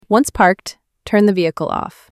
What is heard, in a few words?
Female Turn Vehicle Off